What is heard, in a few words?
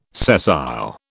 "sessile", British